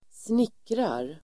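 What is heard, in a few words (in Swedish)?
"snickrar", Swedish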